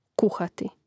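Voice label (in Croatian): "kuhati", Croatian; Mrežnik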